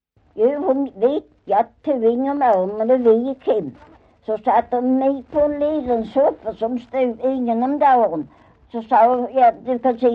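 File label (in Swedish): Maglarp Skåne, dialekter; Folkminnen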